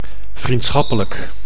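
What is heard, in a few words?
"vriendschappelijk", Dutch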